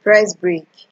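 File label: "press brake", Nigerian Pidgin, female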